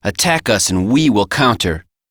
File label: Operator Voice; Warframe; Operator Mission; Battle Begins